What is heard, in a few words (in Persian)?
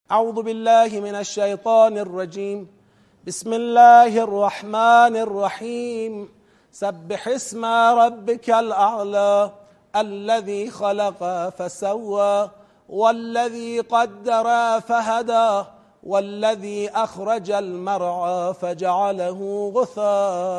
قرائت تدبری سوره اعلی